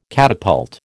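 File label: "catapult", American English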